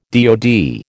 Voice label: "DOD", American

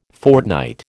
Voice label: "fortnight", American

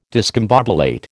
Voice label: "discombobulate", American

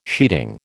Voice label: "sheeting", American

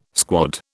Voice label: "squad", British

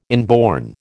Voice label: "inborn", American

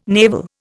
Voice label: "navel", Indian

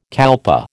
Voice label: "kalpa", American

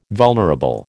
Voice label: "vulnerable", American

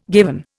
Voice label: "given", Indian

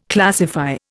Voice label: "classify", Indian

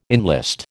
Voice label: "enlist", American

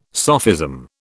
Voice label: "sophism", British

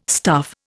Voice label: "stuff", Indian

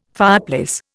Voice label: "fireplace", Indian

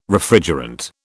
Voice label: "Refrigerant", British

